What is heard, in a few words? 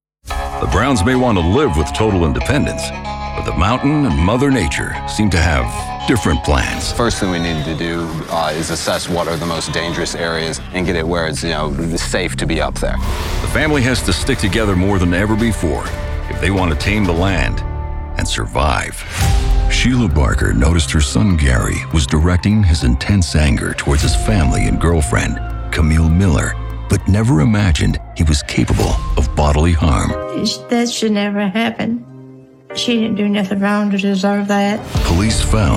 TV Narration